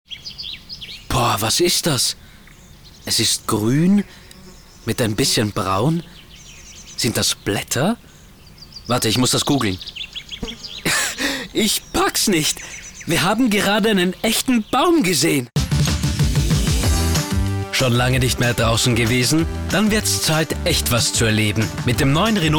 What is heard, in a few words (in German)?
Mann